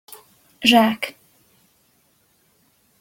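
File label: "zsak", Hungarian pronunciation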